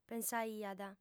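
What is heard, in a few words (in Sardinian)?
"pensaiat", female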